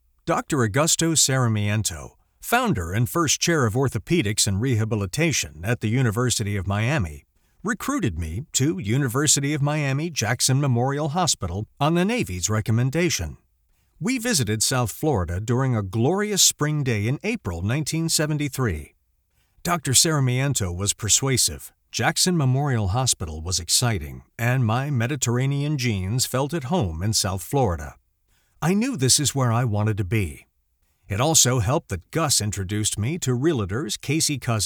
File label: Audiobook Non Fiction Memoir Biography